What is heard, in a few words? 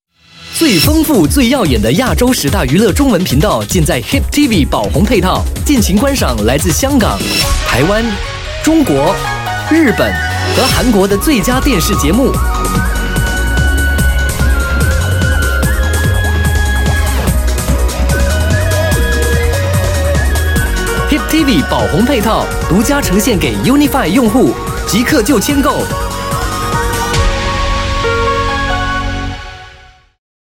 TVC CHI; HyppTV Ruby